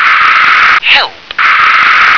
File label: "help", English